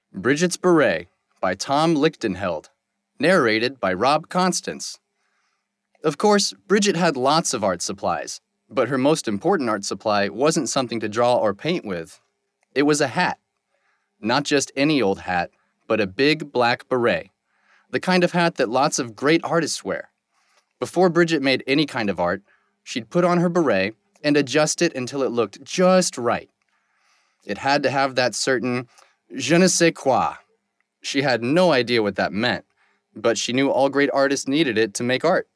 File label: Fiction Sample